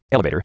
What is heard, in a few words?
Spearcon Elevator